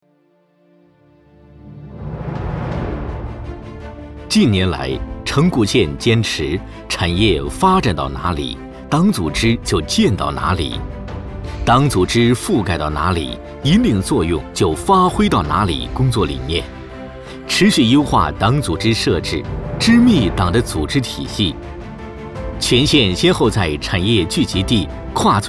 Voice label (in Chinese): 男,汇报片; 城固